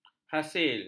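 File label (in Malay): "hasil", Malay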